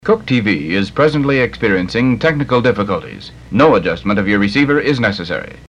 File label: technical difficulties announcer; kook tv 2 billings mt